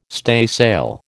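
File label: "staysail", American English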